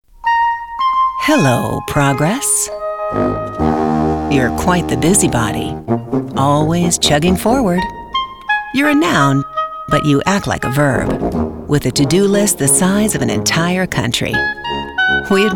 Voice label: English US Commercial